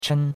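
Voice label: "chen1", Chinese; Cours-de-Chinois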